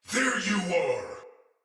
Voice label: See Player Shout, Battle; Sinecure Soldier